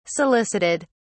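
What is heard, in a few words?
"solicited", English, British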